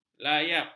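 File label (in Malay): "layap", Malay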